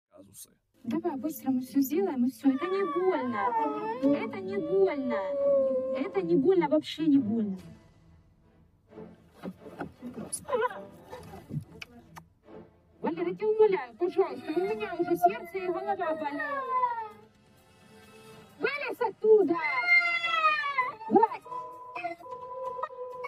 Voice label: Autovocoding